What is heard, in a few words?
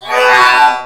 Male Die Robot; gamerip; Mars Sortie